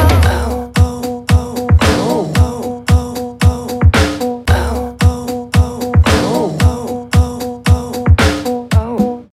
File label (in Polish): reklama corsa drive new opel